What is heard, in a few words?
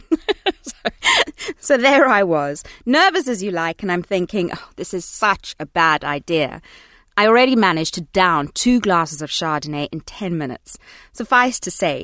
Audiobook